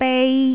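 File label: "bei1", Jyutping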